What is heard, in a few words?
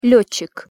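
"letcik", Russian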